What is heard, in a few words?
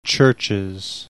"CHURCHES", English